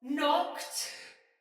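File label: See Player Ready, Soldier Female, Battle Barks; Sinecure; en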